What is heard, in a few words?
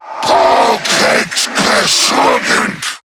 Surprised; Zariman Wraith; en